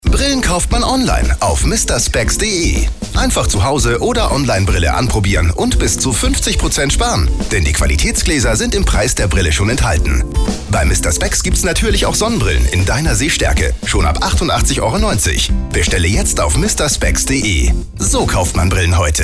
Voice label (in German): Fremdtake Freundlich